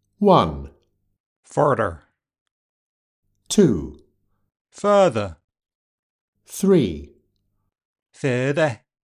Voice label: Welsh